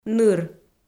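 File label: "ныр", Komi, female; audio dict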